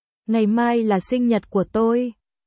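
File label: Vietnamese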